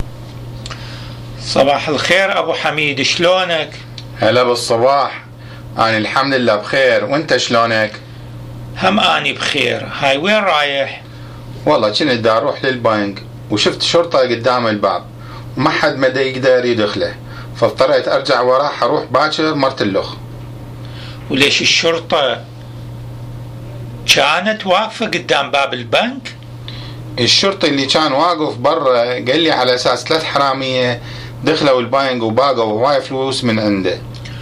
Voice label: Dialogue about bank incident, Iraqi Dialect